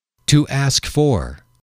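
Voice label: to ask for, male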